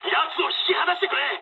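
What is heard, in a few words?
aircraft damaged mood low, speech japanese; War Thunder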